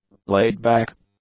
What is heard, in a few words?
"laid-back", english; adjectives